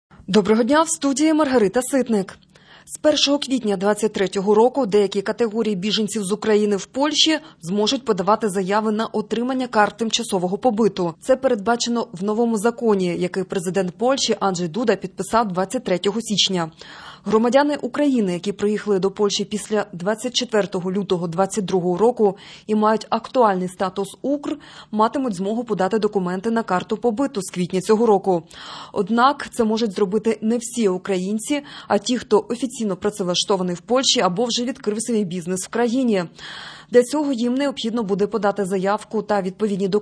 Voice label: Ukrainian Evening News